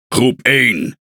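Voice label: NL Speech Pilots Torpedo ID, Dutch; World Of Warships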